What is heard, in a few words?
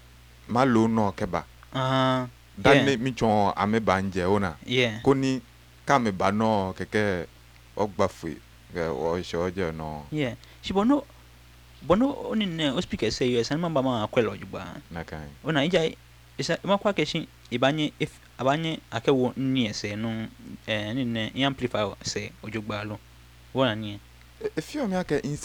conversation, Ga